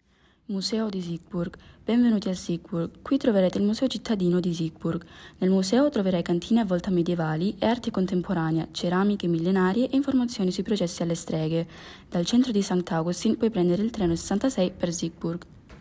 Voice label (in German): italienisch